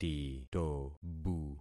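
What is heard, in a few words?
"titobu", concatenated syllables; Affixing experiment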